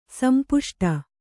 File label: "ಸಂಪುಷ್ಟ", kannada